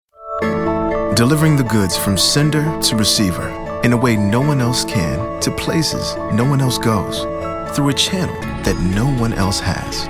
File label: Narr